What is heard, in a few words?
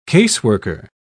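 "caseworker", British English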